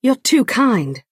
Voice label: female "thank you"; Bosmer